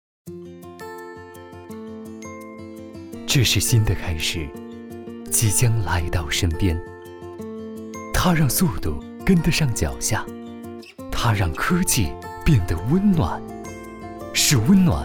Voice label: 男 广告; 实惠年轻; 中国移动广告

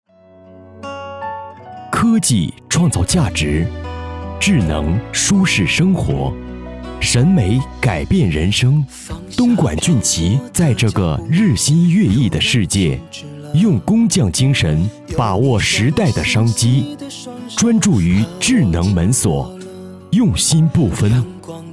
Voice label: 男 专题 大气抒情

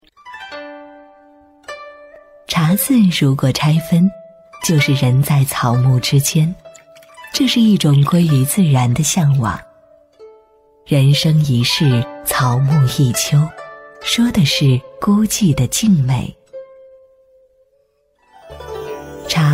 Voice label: 女 古典舒缓

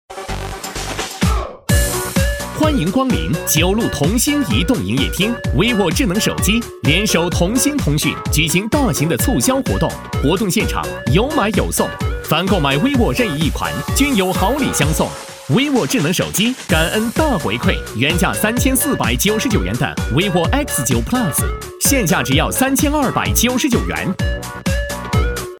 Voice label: 男63 移动手机店广告（激情）

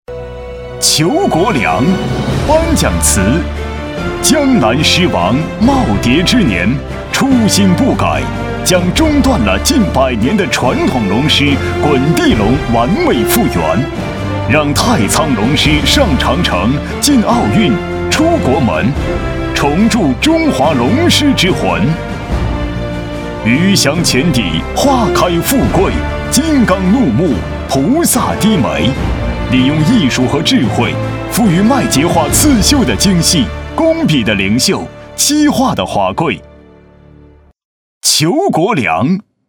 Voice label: 男56 颁奖（激情大气）

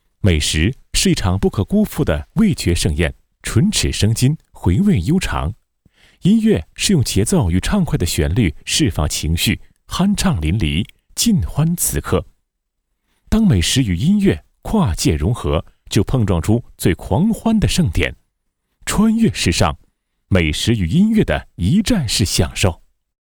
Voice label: 男22 餐饮火锅（轻松）, 广告配音; 川悦时尚-餐饮火锅

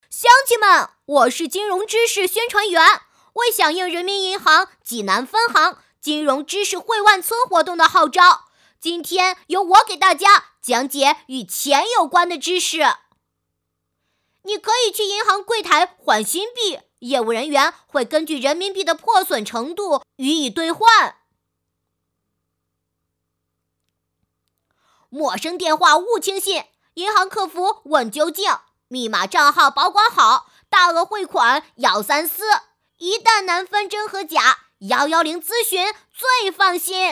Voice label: 女 童声男; 银行讲座

